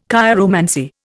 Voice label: "chiromancy", Indian